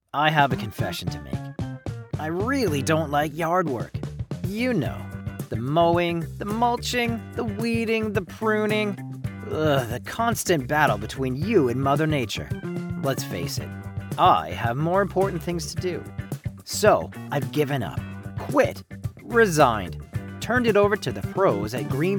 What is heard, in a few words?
Ad w music; Green Thumb